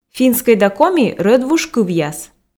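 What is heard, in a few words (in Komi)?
финскӧй да коми рӧдвуж кывъяс, Komi, female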